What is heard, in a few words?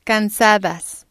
"casadas", spanish